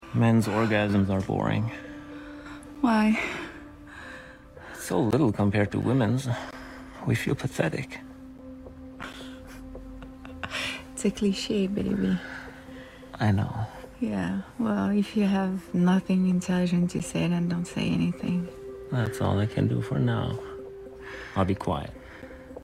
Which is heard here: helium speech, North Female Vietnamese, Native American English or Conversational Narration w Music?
Native American English